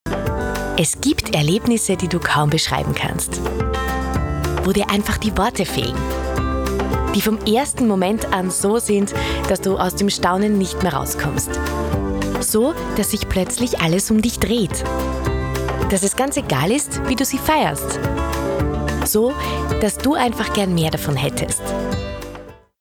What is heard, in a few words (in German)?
Frau OFF